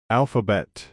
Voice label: "alphabet", English

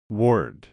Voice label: "word", English

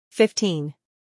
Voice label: "fifteen", English

